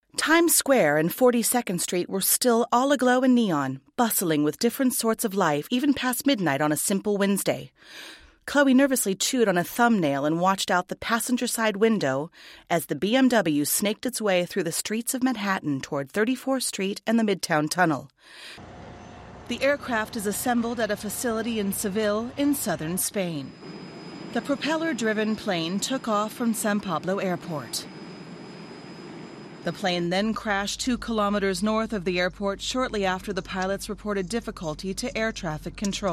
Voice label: narration

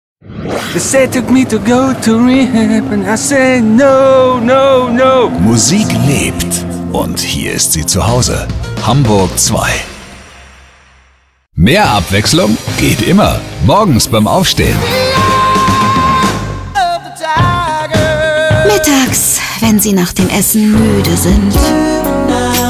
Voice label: Station Voice; HAMBURG ZWEI; LOFT VOICES

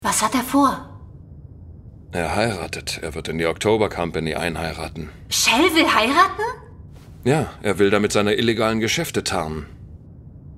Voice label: Game, German; Mardock Scramble

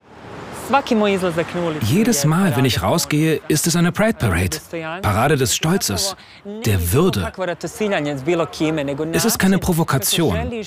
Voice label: Voice Over; Bosnien

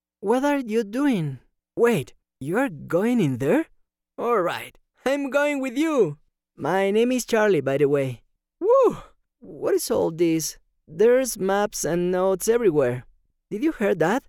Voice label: KID Voice English

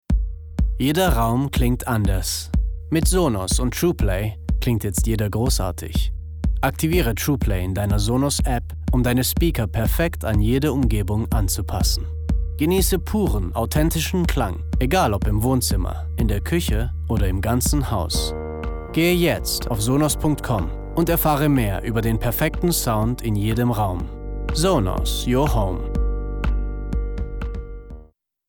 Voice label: German; Sonos; LOFT VOICES

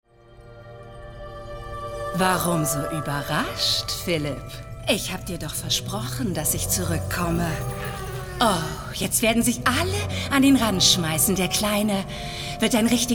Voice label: Animation

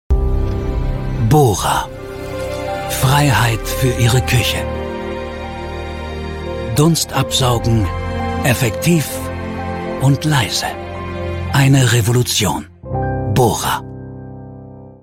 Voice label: Commercial; Bora

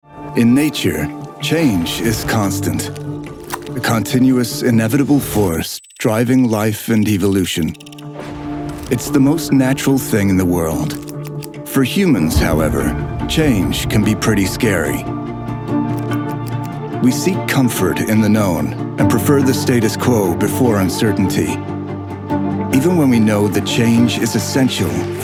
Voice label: Transatlantic reel

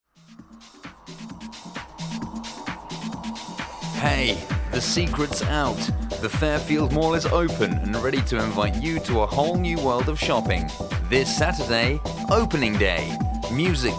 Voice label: Commercial Voiceover; LOFT VOICES

